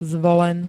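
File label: "Zvolen", Slovak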